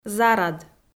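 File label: "зарад", Komi, female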